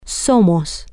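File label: we are permanent, Spanish, female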